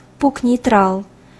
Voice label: girl